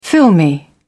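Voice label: "filmy", British English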